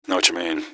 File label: answer, gasmask; scmod